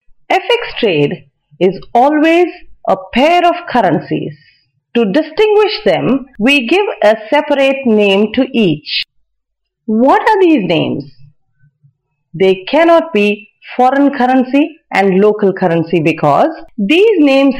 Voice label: female voice